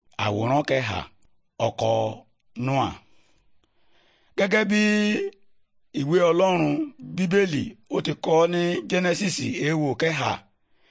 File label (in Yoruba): good news yoruba, bible